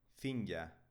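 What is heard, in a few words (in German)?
"finja", baskische Mädchennamen, Aussprache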